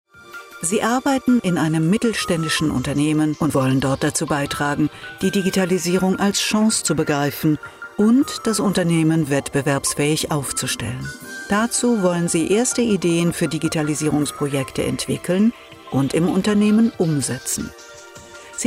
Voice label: elearning unterlegt

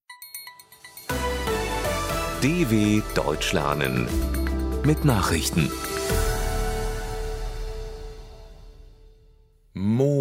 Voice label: langsam

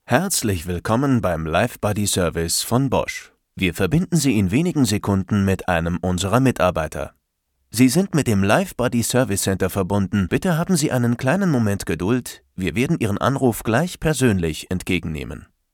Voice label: telefonansage; bosch; mobrents

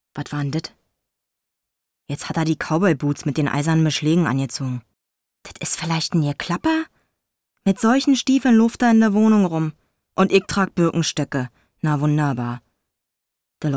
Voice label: dialekt berliner jeschichte

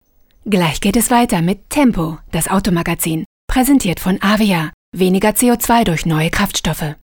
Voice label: Werbung; avia

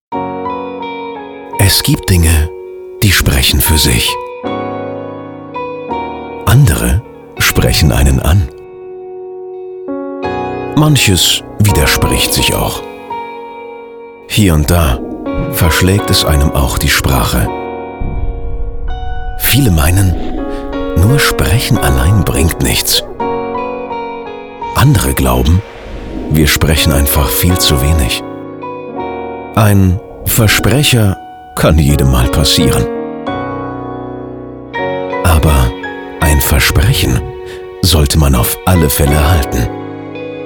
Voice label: imagefilm

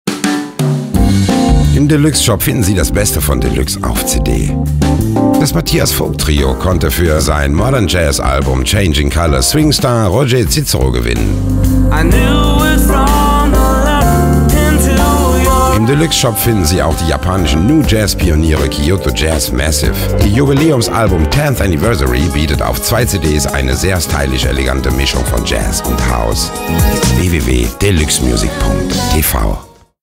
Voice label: Werbung

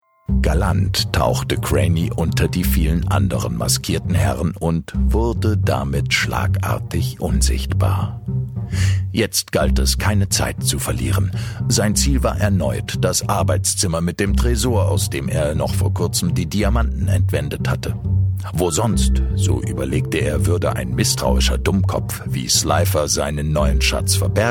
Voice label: hoerspiel erzaehler

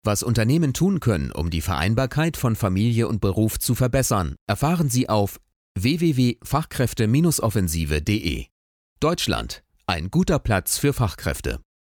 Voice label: stimmprobe imagefilm

